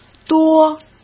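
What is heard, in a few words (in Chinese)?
"多", Mandarin Chinese; chinese flashcards; hsk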